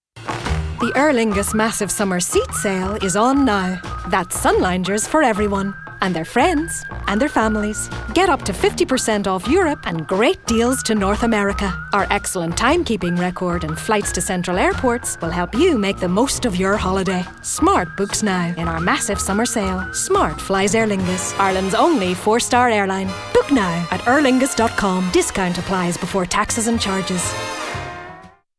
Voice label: female english irish northern irish accent commercial; aer lingus